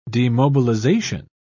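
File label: "demobilisation", British English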